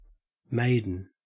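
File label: "maiden", Australian English; pronunciations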